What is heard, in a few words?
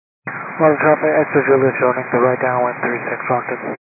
122 725MHz, scanner radio